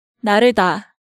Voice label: "Nareuda", Hangeul sounds